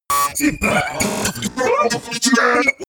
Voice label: monster glitch talk; kreaturen

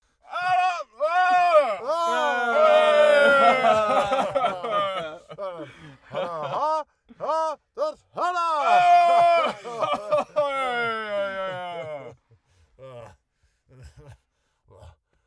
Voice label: talk loud

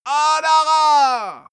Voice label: male gibberish attack; menschen; schreie

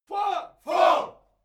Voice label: military shout english four

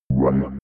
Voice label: robot voice one fx

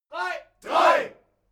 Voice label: military shout german three

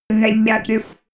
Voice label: robot voice negative

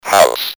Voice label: "house", voices

